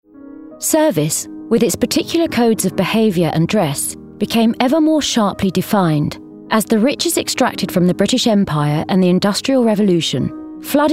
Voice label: Documentary; Real Downton Abbey